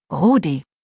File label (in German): "Rudi", deutsch, jungennamen